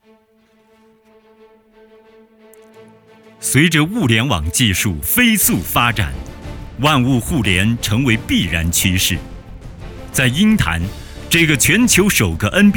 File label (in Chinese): 企业宣传片配音片段